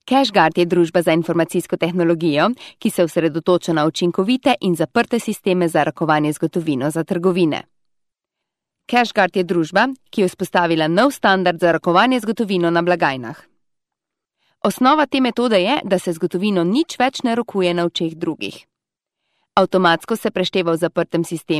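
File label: Slovenian, female, corporate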